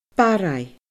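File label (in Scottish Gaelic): "Barraigh", Scottish Gaelic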